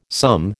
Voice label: "sum", American

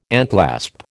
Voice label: "enclasp", American

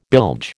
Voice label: "bilge", American

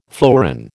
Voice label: "fleuron", American

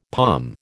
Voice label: "pom", American

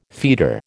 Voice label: "feeder", American

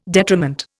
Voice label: "detriment", Indian

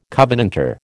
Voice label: "covenanter", American

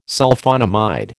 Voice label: "Sulphonamide", American

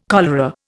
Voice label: "cholera", Indian

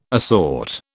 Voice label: "assort", British

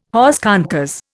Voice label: "hors concours", Indian

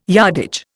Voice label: "yardage", Indian

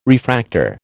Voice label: "refractor", American

